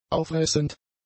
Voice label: "auffressend", German; adjectives

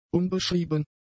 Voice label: "unbeschrieben", German; adjectives

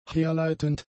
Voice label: "herleitend", German; adjectives

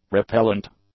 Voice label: "repellent", English; adjectives

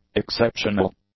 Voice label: "exceptional", English; adjectives

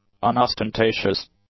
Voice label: "unostentatious", english; adjectives

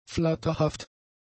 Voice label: "flatterhaft", German; adjectives

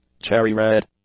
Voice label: "cherry-red", English; adjectives

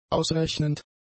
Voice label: "ausrechnend", German; adjectives

